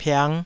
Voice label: "piang5", Taiwanese